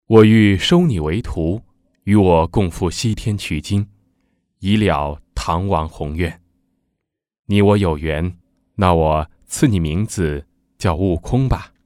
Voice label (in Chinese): 男 收徒; 唐僧-收徒